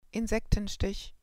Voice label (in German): "insektenstich", german